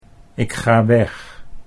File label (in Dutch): "ik ga weg", Dutch